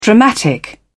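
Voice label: "dramatic", British English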